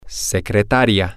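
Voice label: secretary, Spanish, male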